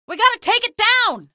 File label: alert gunship, female; video games; npc; source engine; episodic; episode 1